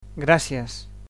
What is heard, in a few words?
"thanks", Catalan